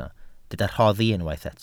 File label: "rhoddi", Welsh